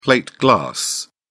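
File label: "plate glass", British English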